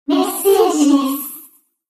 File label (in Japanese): 女性ロボットボイス「メッセージです」